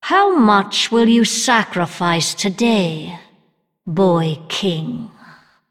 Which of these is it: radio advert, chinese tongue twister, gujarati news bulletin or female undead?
female undead